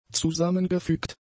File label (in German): "zusammengefügt", German; adjectives